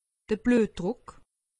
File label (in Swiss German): "bluetruck", alsace; medecine